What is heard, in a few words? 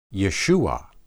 "yeshuah", Hebrew